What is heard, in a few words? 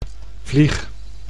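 "vlieg", Dutch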